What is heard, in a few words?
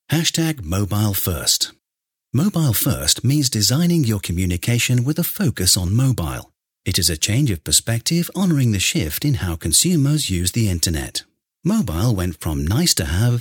British Voiceover Event; HEINEKEN COMMERCE WEEK 2018; MOBILE FIRST